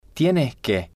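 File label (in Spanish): tiene que, Spanish, male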